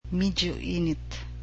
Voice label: "warm", cebuano